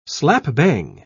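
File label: "slap-bang", British English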